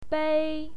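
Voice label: "bei", Mandarin Chinese, first tone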